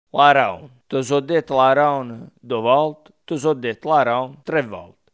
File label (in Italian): rana, coratino